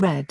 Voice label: "read", English; express english